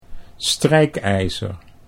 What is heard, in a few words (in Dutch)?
"strijkijzer", Dutch